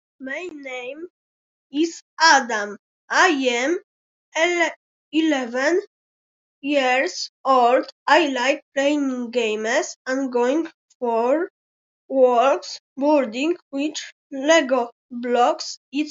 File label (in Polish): wiadomosc glosowa